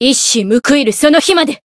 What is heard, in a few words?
chant jp